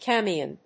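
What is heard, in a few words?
"camion", English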